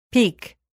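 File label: "peak", English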